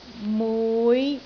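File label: "mui5", Cantonese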